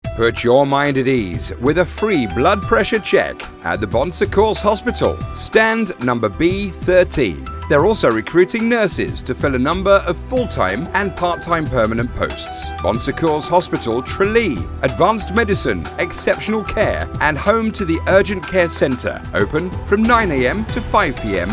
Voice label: Hospital ad; Bon Secours Hospital Tralee